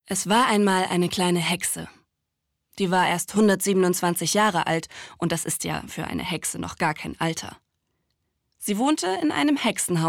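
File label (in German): Erzählung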